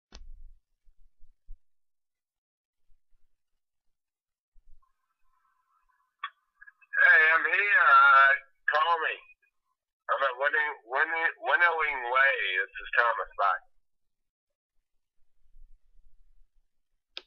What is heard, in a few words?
voicemail